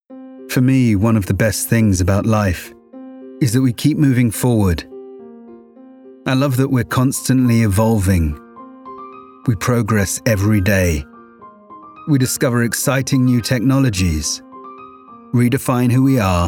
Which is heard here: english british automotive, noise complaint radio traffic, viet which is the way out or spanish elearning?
english british automotive